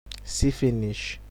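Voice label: "see finish", Nigerian Pidgin, male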